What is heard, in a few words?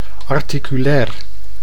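"articulair", Dutch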